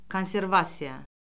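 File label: "konservasiya", Azerbaijani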